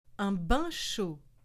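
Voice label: "un bain chaud", French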